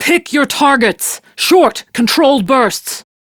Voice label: Crew Enemy Spot, Female; Dialog; Warframe; Railjack Crew; Steel Meridian